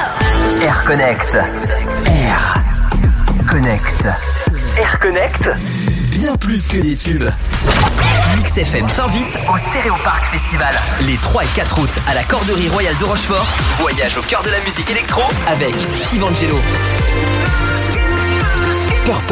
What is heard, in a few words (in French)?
Voix H